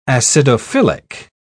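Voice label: "acidophilic", British English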